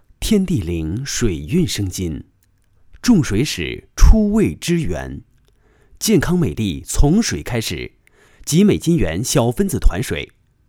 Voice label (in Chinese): 男, 国语男声配音 解说; 紫光吉美